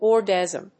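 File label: "orgasm", English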